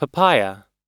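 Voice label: "papaya", US English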